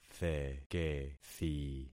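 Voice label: "thekethi", concatenated syllables; Affixing experiment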